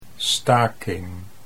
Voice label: "staking", Dutch